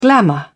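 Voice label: "glamor", English, British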